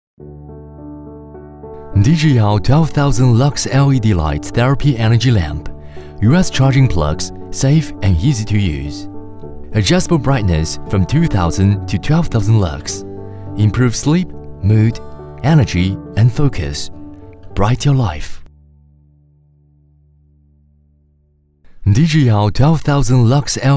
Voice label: 男英 外语 国内英语 年轻; DGYAO

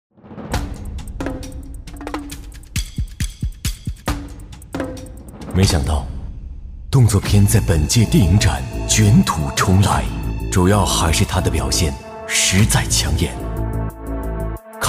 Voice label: 男 广告 品牌广告; 品牌形象; 奥迪

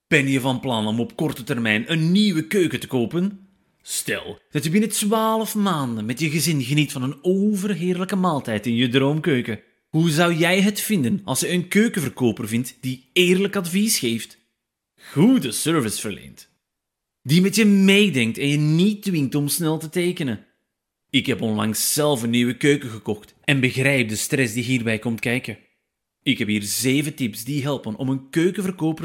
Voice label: 荷兰语男 外语 小语种

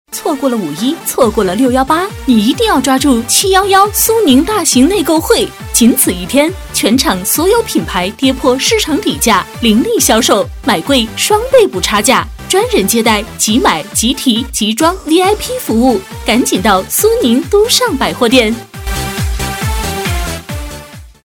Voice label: 广告 促销 亲切, 女国; 苏宁

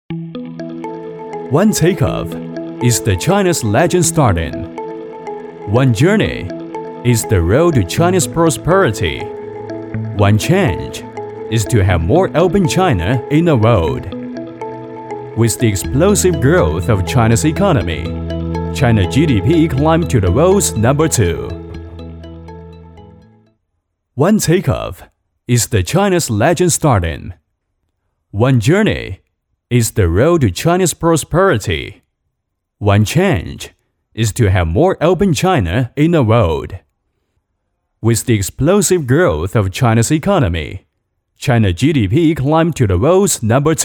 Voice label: 男英 外语 国内英语 广告; 广告礼来

